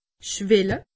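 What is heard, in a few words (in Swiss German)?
"schwelle", Alsatian; medecine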